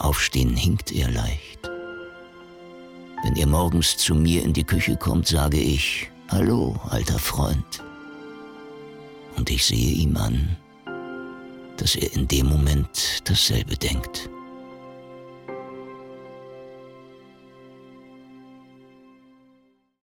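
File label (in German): erzaehler hund, sprecher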